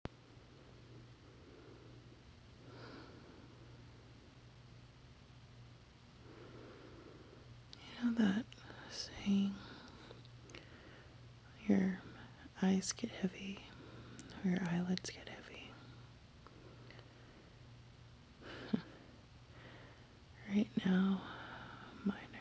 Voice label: sleepy stream of consciousness, whispers, breathy; F4A; snooze

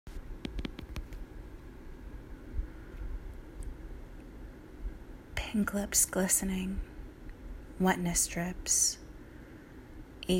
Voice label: erotic poem, F4M, F4A

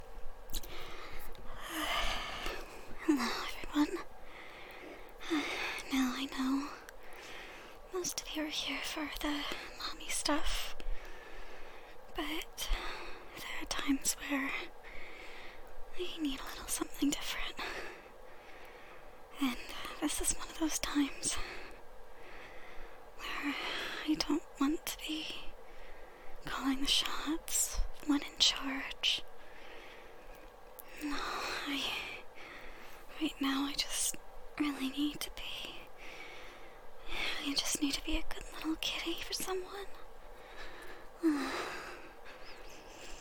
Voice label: Wet noises, soft voice; F4M; I wanna be your good kitty!; Petplay

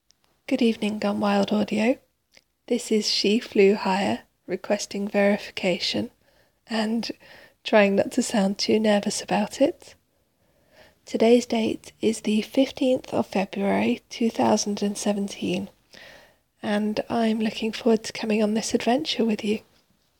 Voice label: British accent, Hello GoneWild Audio

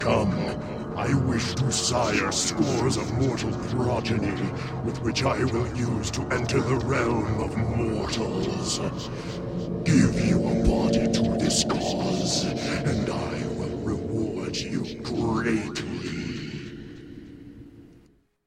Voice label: Demon Voice Test

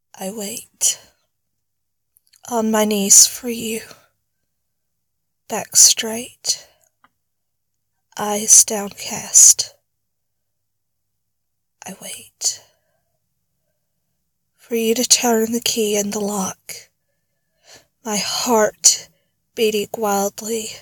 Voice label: erotic poetry, sensual, southern accent; F4M; FSub; I wait

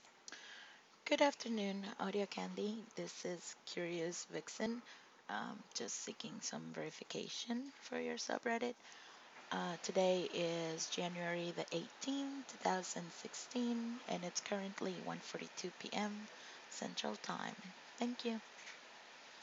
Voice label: F, Verification

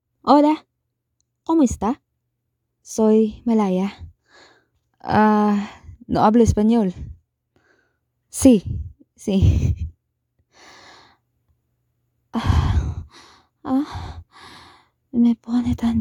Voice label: Spanish Dirty Talk

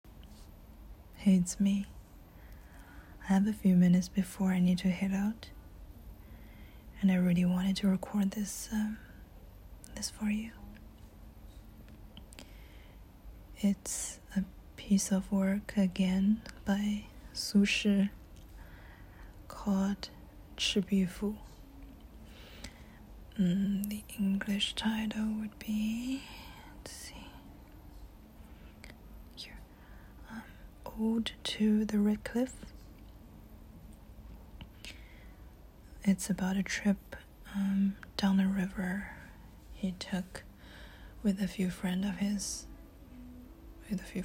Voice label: Rainy day Reading, rambles, Soft Spoken, whisper; F4A; Ode to the Red Cliff; relaxing; SleepAid

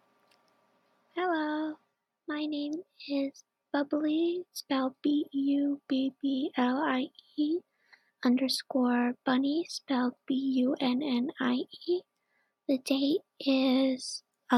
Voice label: Verification for Female

